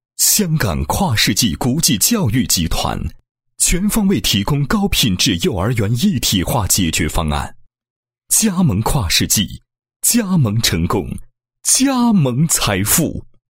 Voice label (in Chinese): 男, 幼教品牌广告; 香港跨世纪国际教育集团